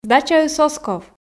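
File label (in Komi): сдачаыс оз ков, Komi, female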